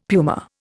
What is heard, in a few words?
"puma", Indian; Dictionary